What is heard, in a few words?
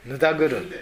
"nudaguru", minamiyamagata